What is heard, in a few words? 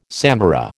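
"samara", American English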